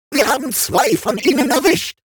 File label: robot Double Kill; World Of Warships